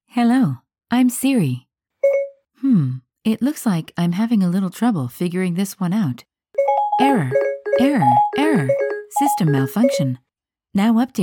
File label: Ai robotic Siri voice example